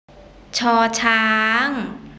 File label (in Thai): "ช ช้าง", Thai alphabet